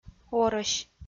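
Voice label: "орӧсь", Komi-Zyrian, female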